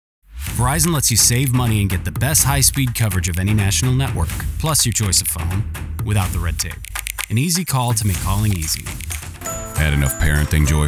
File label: Commercial